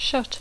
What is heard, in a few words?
"shut", English; verb tr conj (shut/shut/shutting); tancar